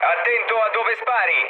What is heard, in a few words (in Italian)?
player friendly fire, mood low, speech it